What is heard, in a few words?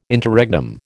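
"Interregnum", American English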